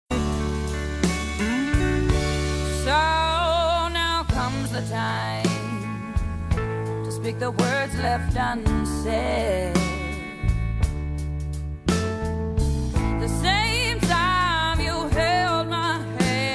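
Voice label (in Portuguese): ANIV CUNHADA male, telemensagem; cunhada cunhado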